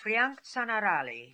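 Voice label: "Frianktzanarali", pronunciations; Fourth Way; Beelzebub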